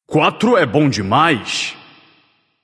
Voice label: alert notify kill, portuguese; caster